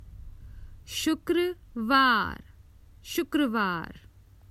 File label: Common Hindi Phrases for travel Friday